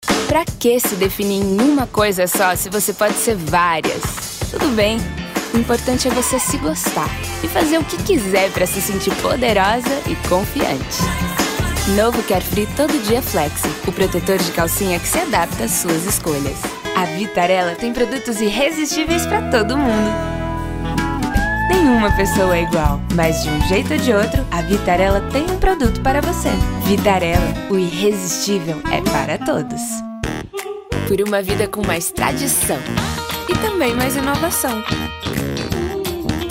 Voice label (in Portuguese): Natural Jovem